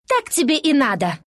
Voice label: kill, ru; dota2